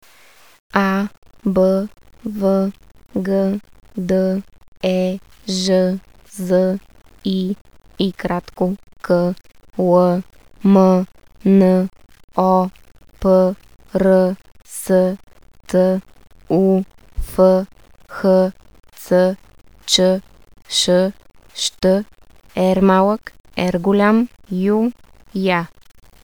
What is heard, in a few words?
Bulgarian alphabet; Bulgarian language